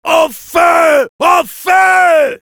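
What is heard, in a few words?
Soldier auto on fire, French; "Au feu, au feu!"; Soldier responses; Mis en Feu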